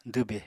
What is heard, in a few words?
"dwbe", Apatani